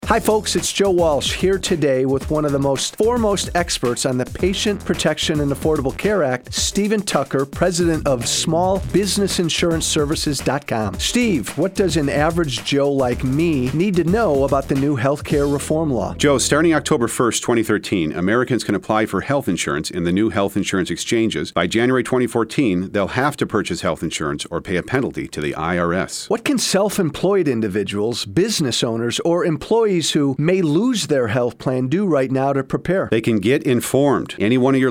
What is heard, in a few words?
commercial